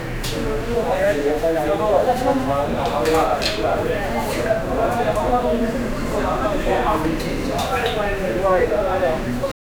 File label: make the sound only stude, chatting